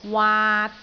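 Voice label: "waat3", Cantonese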